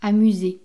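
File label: "amuse", French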